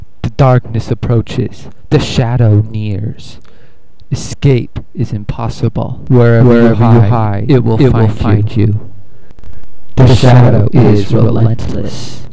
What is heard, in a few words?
WOOT! ECHO!